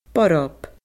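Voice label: "borb", Scottish Gaelic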